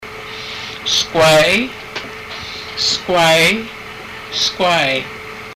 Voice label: back, haida language